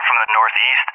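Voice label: "from the northeast", English, USA; DCS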